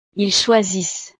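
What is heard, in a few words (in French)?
"ils choisissent", French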